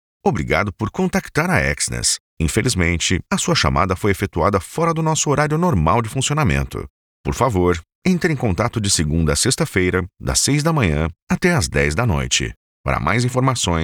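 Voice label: Brazilian Portuguese, male, IVR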